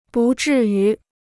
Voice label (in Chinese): "不至于", Chinese